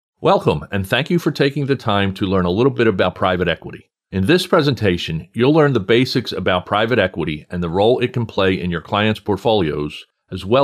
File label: E-Learning